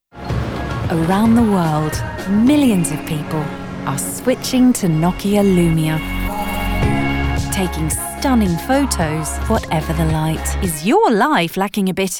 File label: montage commercial